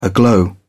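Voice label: "aglow", British English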